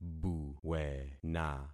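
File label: "buwena", concatenated syllables; Affixing experiment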